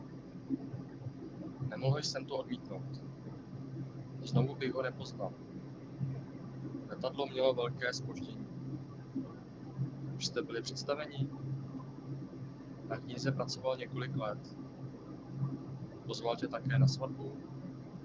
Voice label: Lux Car 130 kmh Czech Male Hands-free; STF 294 Database (EG-ES 202 396); AMR-WB; 0ms Delay - 0ms Jitter - 0% Loss